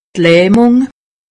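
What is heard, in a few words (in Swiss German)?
"lahmung", Alsatian; medecine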